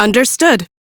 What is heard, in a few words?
Accept, Female; en; Warframe; Railjack Crew; Cephalon Suda